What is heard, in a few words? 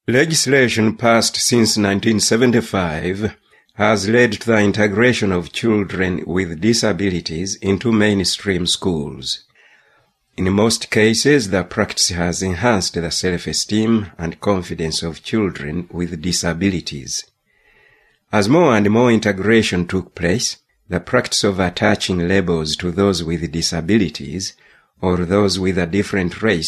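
Luganda, English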